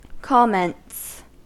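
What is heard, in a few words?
"comments", US English; pronunciations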